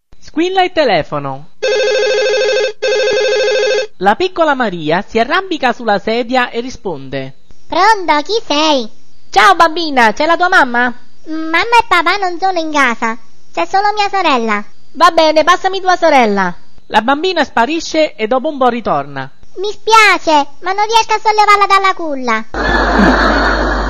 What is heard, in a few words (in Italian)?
bambina al telefono